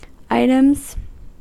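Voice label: "items", US English; pronunciations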